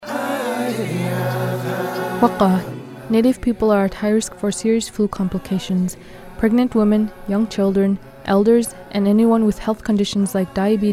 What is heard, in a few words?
yupik female; flu; psa; radio; native-and-alaskan-psas; serious protection